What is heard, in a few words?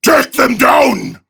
Enemy Spotted Prime Grineer Male; Tau; Battle Barks